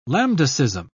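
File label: "lambdacism", British English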